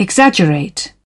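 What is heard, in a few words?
"exaggerate", British English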